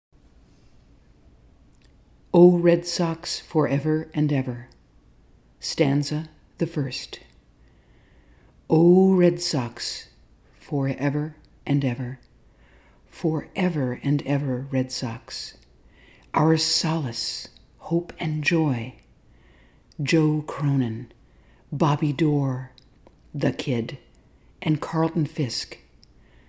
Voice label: Narration